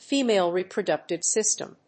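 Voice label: "female reproductive system", English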